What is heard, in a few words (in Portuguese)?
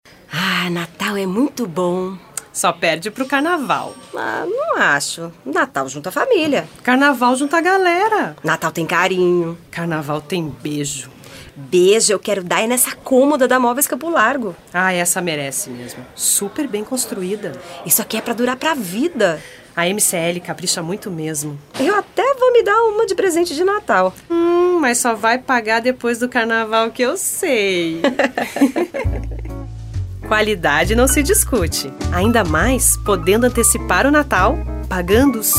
locutora